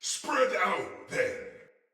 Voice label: Respond Shout, Barks Battle Soldier; Sinecure Soldier

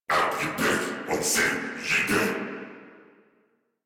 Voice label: Patrol, Battle Barks

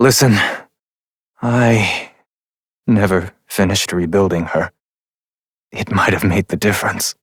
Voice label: Adult Op, en; Warframe; New War

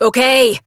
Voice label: Horse Stop Adult; Duviri

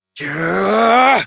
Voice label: Abil Exert Adult en; Warframe; Duviri; Drifter; Melee

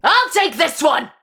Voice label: Player Spotted Ranged, Battle Barks; Tau; Tau Dax

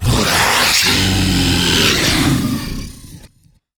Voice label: Attack, Battle Barks; Warframe; MiTW

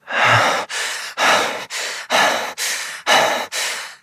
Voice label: Operator Voice; Warframe; Chimera

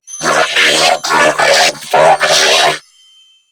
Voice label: Cover Me Grineer Female; New War; Narmer

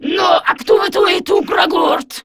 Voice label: Patrol, Grineer Female; Warframe; Battle Barks

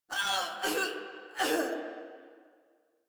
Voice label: Death Female; Warframe; Modular Corpus Female

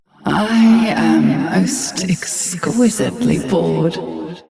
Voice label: Ambient Barks, en

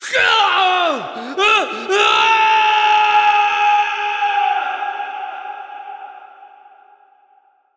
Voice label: Bridge Collapse Adult; Warframe; Duviri Quest; Duviri Paradox Quest

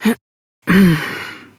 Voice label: Horse Mount Adult, Dialog; Duviri

